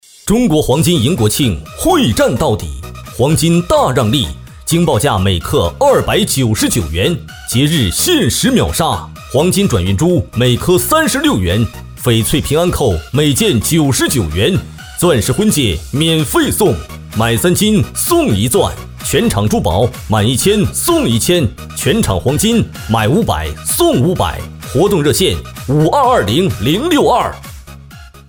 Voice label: 男 促销; 大力丸

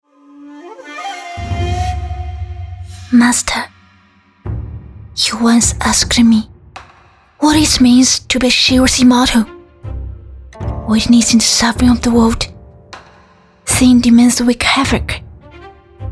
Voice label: 女 英文角色

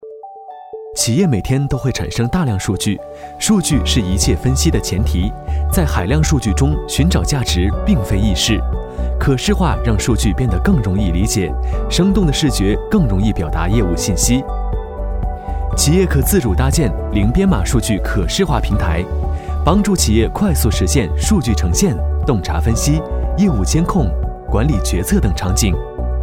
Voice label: 男 科技感 数据产品

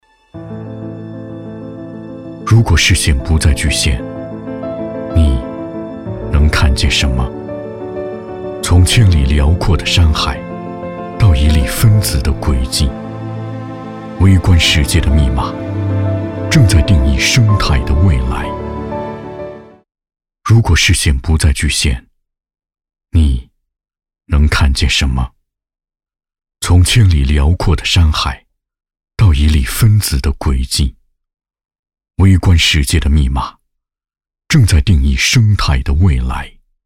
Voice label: 男 品质宣传

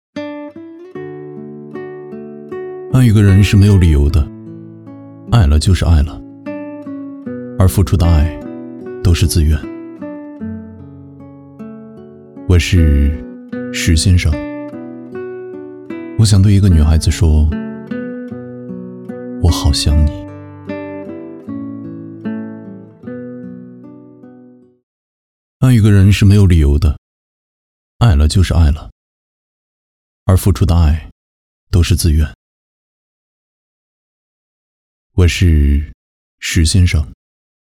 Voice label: 男 旁白 情感独白; 爱一个人

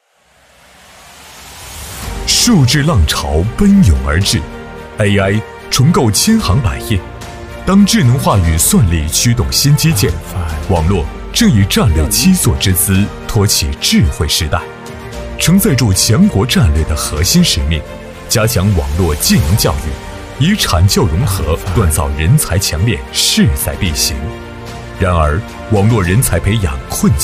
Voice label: 男 科技感; DatacomStar

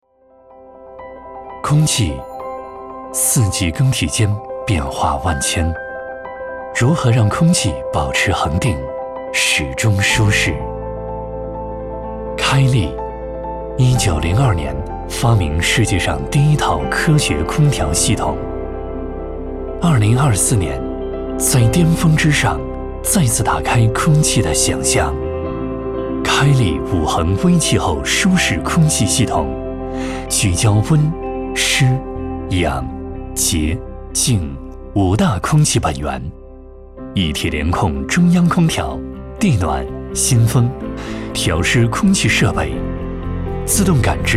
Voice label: 男, 旁白; 开利五恒文案

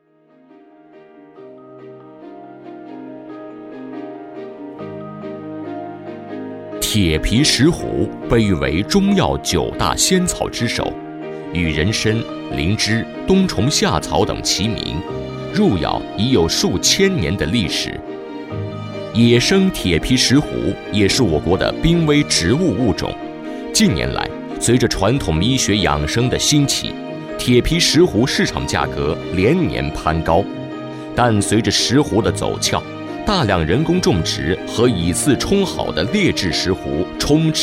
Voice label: 男 宣传片 浑厚 大气 沉稳; 广西健宝

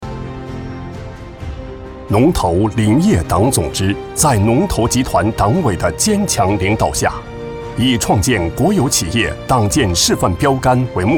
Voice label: 男 党政; 农投林业党总支

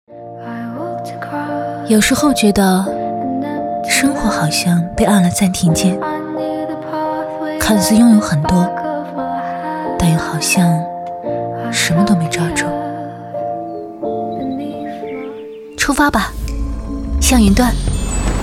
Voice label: 女 TVC

